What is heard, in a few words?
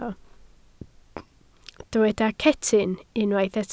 "cetyn", Welsh